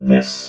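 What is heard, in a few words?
"this", robot voice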